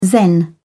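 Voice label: "zen", British English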